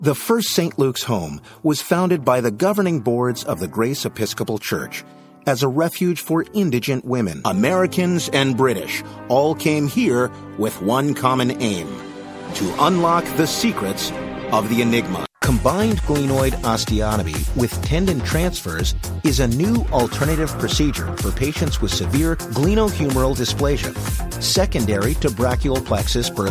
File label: narration